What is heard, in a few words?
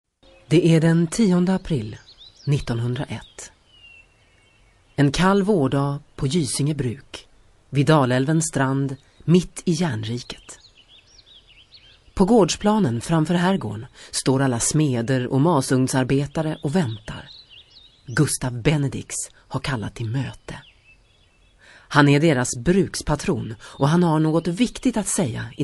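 Swedish, female, narration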